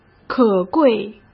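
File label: "可贵", Mandarin Chinese; chinese-flashcards; hsk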